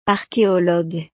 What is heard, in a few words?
"archéologue", francés; profesiones